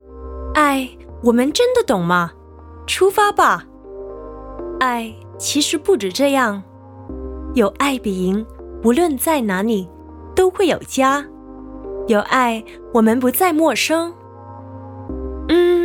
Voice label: Chinese Mandarin, Female, 20s-30s; Chinese-Cantonese

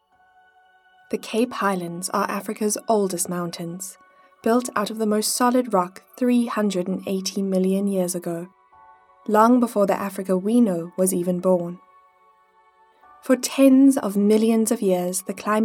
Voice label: narrative; 20s-30s. Female. Studio. South African.

